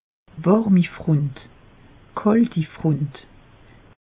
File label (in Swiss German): "warmi front", alsace; meteo